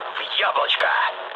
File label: bomb success, mood low, speech ru; War Thunder